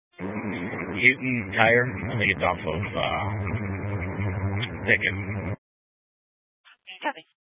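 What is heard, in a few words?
Sheriff Dispatch, Scanner audio recording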